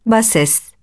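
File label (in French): "bassesse" French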